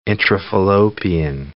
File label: "intrafallopian", English